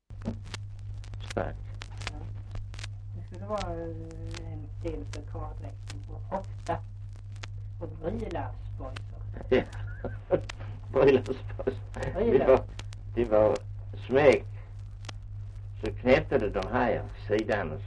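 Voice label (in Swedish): Östra Ingelstad Skåne, dialekter; Folkminnen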